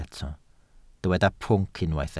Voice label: "pwnc", Welsh

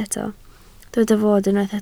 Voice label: "fod", Welsh

